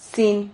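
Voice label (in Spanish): "sin", Spanish syllable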